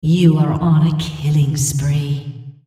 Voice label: female On Killing Spree